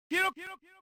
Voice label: Announce EN; Hiro P1